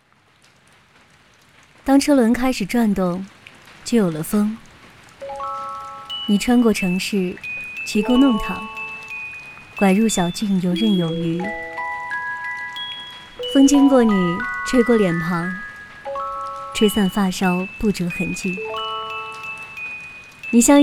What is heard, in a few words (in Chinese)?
女 广告 品牌形象 独白 共享单车 讲述; ofo